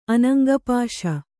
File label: "ಅನಂಗಪಾಶ", Kannada